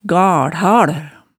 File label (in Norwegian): "galhal", hemsedalsmaalet